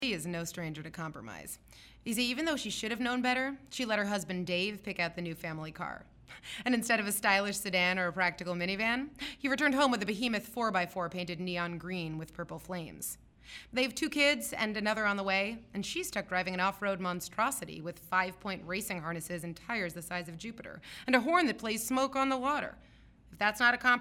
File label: voice over